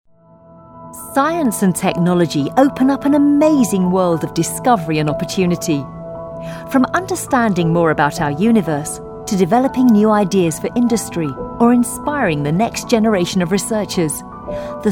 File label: Corporate Mix